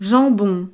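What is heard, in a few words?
"jambon", French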